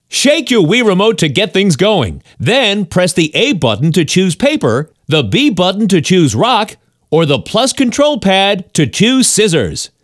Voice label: roshambo vo; Birthday Party Bash; Wii

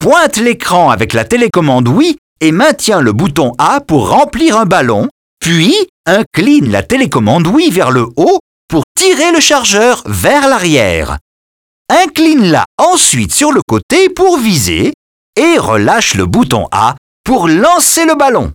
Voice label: French - waterballoon vo; dialogue; birthday party bash; wii

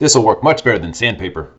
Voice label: Male Sandpaper; Blastmorph Pinball; xbox series xs

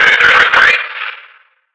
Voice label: Guard Vox Contraband Found

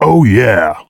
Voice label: "Oh Yeah", Male; Blastmorph Pinball; xbox series xs

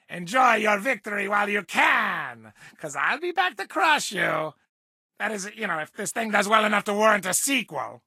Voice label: English Voice

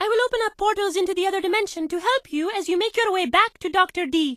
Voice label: English Voice

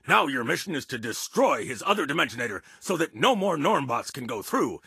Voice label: English Voice

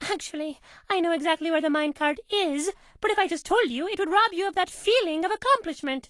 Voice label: English Voice

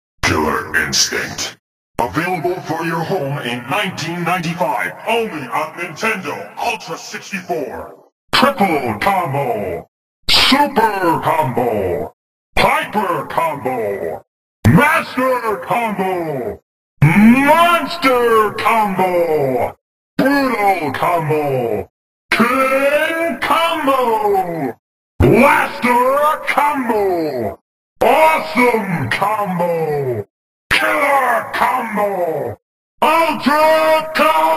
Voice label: Announcer; killer instinct arcade soundtrack arcade gamerip 1994

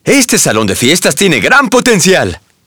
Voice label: Spanish - dialogue, reveal

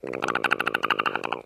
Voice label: English Voice; Disney; Phineas and Ferb; The Transportinators of Doooom

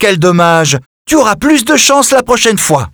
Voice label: French - dialogue, Hat Mix Expressions

